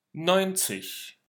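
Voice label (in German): "neunzig", German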